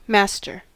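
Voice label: "masterfully", US English; pronunciations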